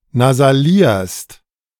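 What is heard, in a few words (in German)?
"nasalierst", German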